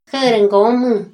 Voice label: "ju̷'u̷ ra ngomu̷", Mazahua

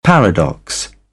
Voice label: "paradox", British; ɛ - æ; English

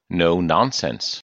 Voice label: "no nonsense" adjective

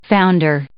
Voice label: "founder", English

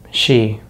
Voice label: "she", American English; easypronunciation

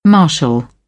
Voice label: "marshal", British; English

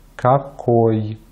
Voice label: "какой", Russian; Vocales átonas en ruso; easypronunciation

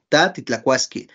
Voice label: tú comerías ta titlakuaskí you would eat, Náhuatl

